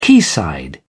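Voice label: "quayside", British English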